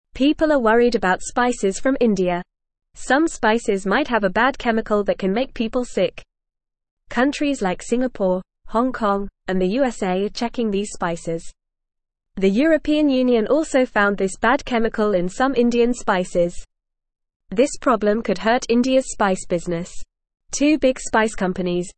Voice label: English FAST Reading; Worries about Indian spices hurting peoples health